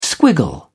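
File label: "squiggle", British English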